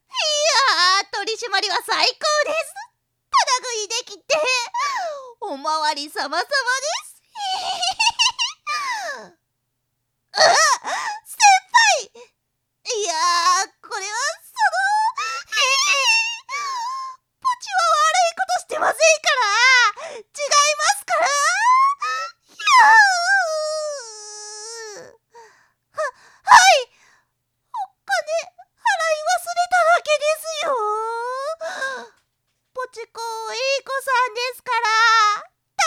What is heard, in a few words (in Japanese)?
うざ可愛テンション; 熊本取り締まり・その後